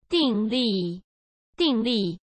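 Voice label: "ding4 li4", Mandarin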